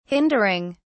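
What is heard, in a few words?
"hindering", British English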